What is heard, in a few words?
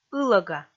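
"ылӧга", Komi, female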